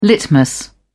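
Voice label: "litmus", British English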